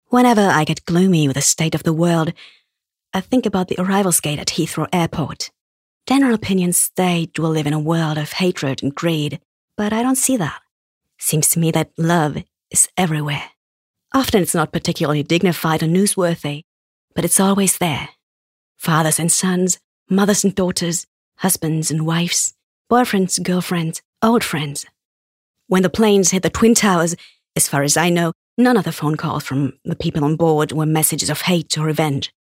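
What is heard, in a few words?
British English, Schauspiel Variabel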